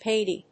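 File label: "Pady", English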